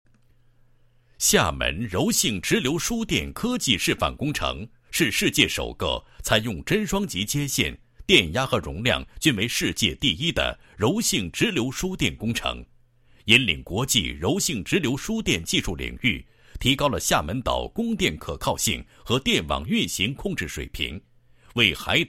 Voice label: 男 企业 宣传介绍; 国网福建检修公司

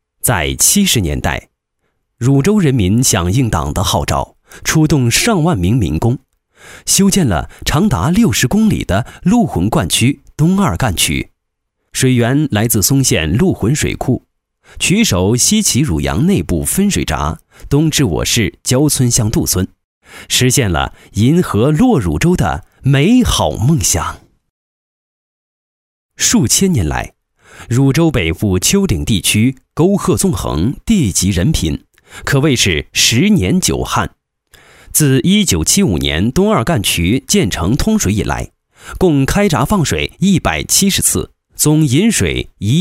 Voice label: 男 梦想银河落汝州, 解说

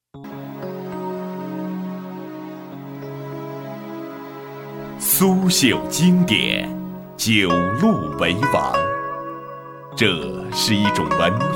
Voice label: 抒情广告,干音,男; 新闻广告专题颁奖; 神鹿抒情片

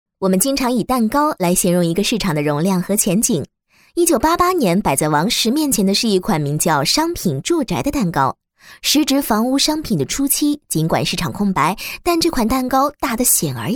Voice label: 国语女声配音 解说 女声; VCR 年会; 治水思源; 总结大会

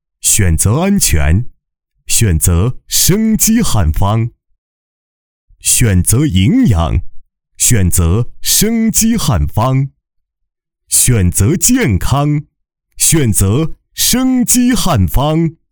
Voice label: 男 广告

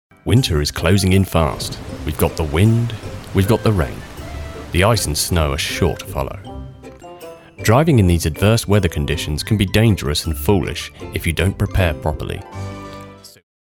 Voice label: 低沉浑厚 汽车产品广告

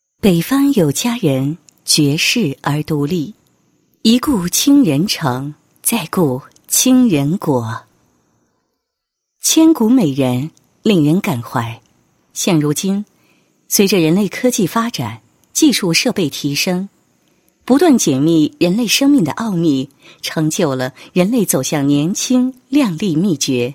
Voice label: 女 企业 宣传片文稿 配音; 倾秀城

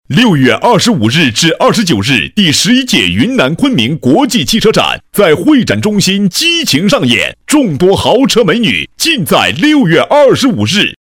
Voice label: 广告-汽车展开幕, 男

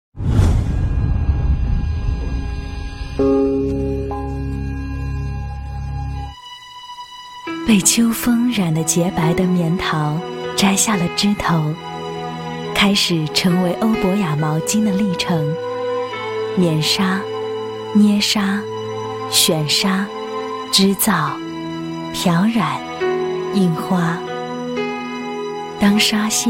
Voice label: 女 毛巾宣传片; 欧柏雅

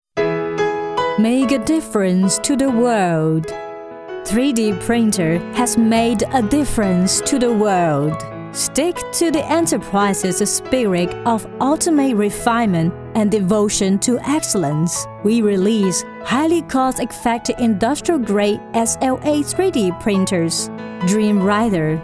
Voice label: 英女, 大气

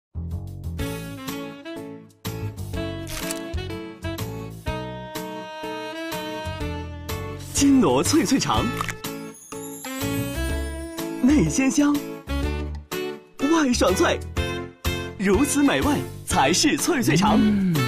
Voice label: 男 香肠广告 年轻好吃; 金锣

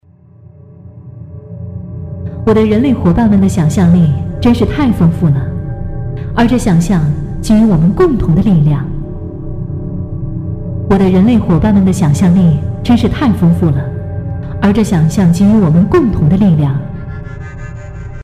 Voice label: 广告 机器AI

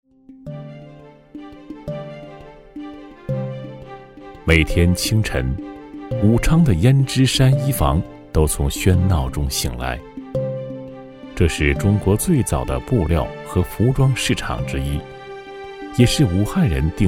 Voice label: 男, 纪录片; 胭脂衣坊

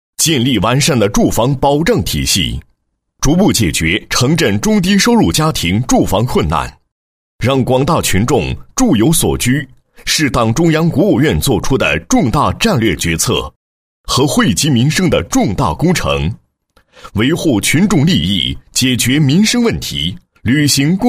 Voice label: 男 工作纪实 公共租赁住房工作掠影, 配音案例; 孝感城区2015年公共租赁住房工作掠影

